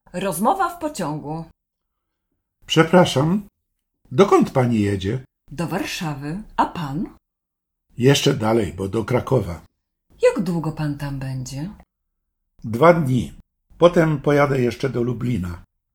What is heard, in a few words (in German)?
polnisch; Sprachkalender Polnisch 2025